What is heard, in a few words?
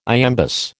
"iambus", American